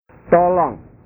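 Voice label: "tolong", Indonesian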